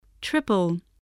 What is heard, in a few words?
"triple", voice